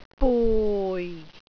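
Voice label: "bui4", Cantonese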